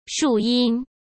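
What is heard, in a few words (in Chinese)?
"树荫" Mandarin Chinese; hsk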